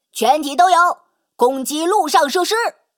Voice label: Speech Quick Commands Enemy Building